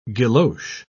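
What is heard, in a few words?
"guilloche", British English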